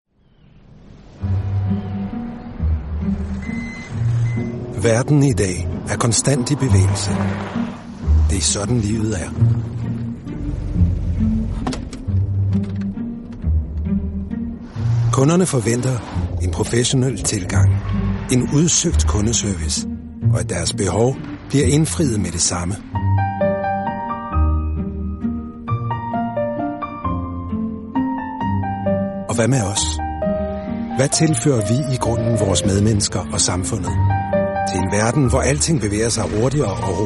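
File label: Danish M Commercial, male; voice over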